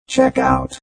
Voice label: Robot Voice "Checkout"; Robot Sound Effects; Lynne Music